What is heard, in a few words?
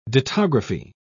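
"dittography", British English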